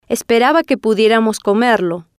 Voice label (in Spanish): "esperaba Que Pudieramos Comerlo", spanish